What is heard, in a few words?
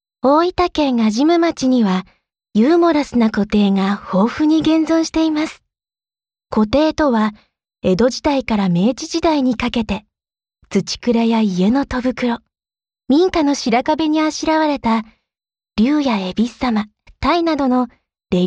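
Japanese, female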